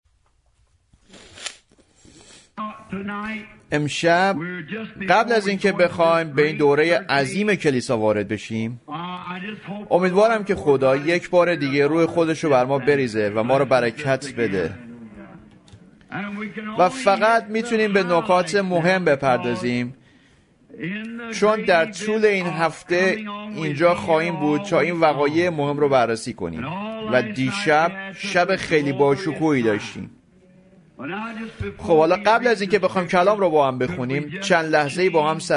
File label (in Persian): Farsi Voice Over; Thyatirean Church Age